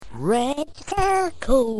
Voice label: Direct-description sounds of the red circle, with "fine texture" effects